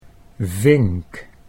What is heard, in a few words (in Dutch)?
"vink", Dutch